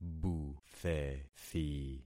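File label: "buthethi", concatenated syllables; Affixing experiment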